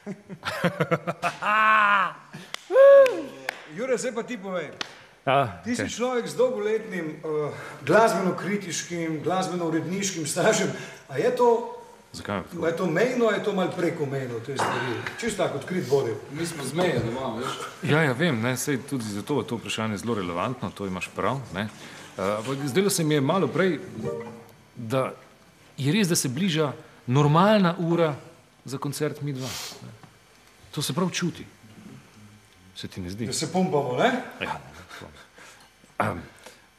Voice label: Dialog